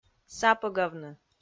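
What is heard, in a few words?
"сапӧгавны", Komi, female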